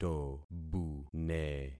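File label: "tobune", concatenated syllables; Affixing experiment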